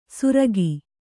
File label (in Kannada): "ಸುರಕಿ", Kannada